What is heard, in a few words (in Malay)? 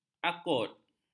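"akut", Malay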